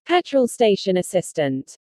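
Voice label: "petrol station assistant", English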